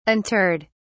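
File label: "interred", British English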